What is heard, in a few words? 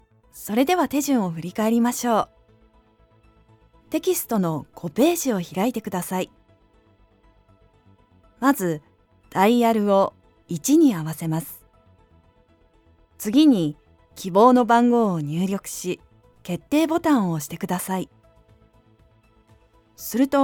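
Japanese e-Learning; GoLocalise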